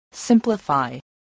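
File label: "simplify", spoken word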